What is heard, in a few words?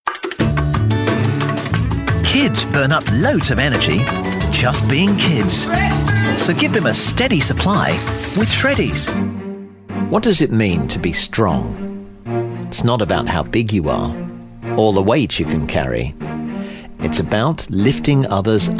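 male UK commercial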